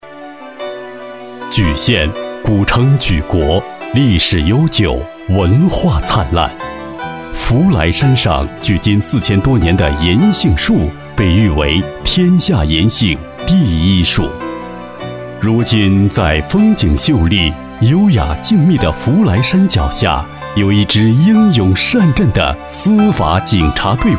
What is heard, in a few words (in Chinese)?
专题 司法队伍, 国语男声配音, 稳重专题